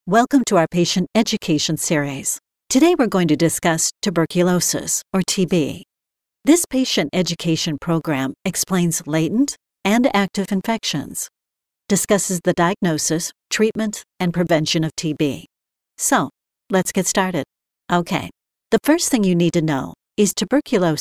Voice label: Medical Narration